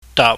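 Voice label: "daap", Cantonese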